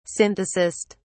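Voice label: "synthesist", British English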